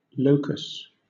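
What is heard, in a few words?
"locus", British English; pronunciations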